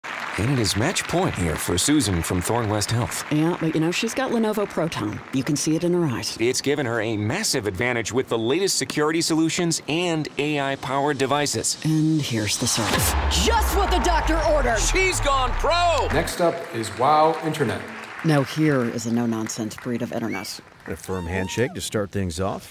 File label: Commercial Sportscaster